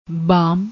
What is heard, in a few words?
"baam", Punjabi